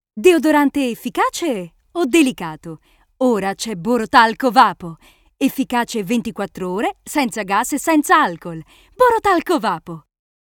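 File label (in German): Italian Werbung Dynamisch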